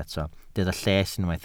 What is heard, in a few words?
"lles", Welsh